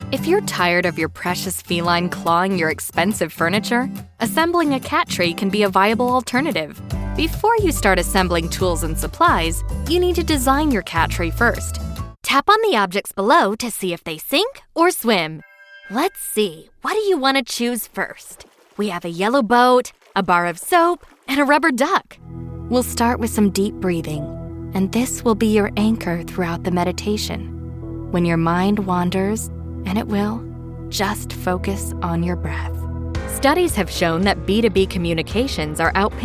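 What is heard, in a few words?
English US Commercial; GoLocalise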